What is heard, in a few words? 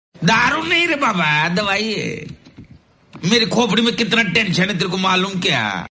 funny dialogue; daru nahi re baba